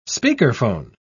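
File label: "speakerphone", English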